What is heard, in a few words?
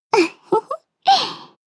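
start, Japanese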